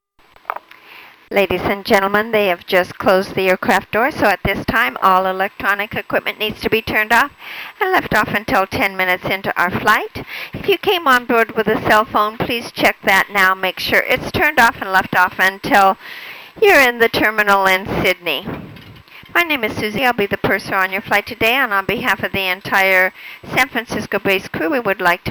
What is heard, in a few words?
Attendant Preflight Announcement